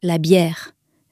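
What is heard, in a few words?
"biere", French, female; flashcards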